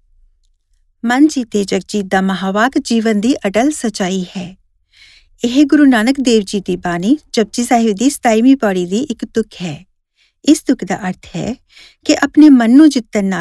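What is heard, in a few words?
Punjabi, General; GoLocalise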